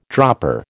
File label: "dropper", American